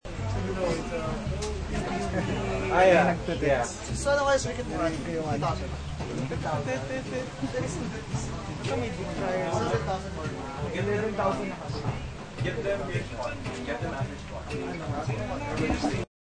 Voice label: talking people in resto with radio